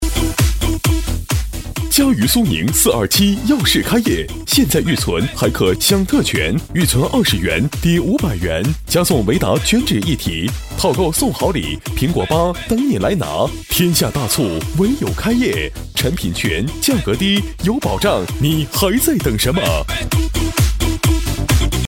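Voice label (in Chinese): 【广告】家电; 苏宁家电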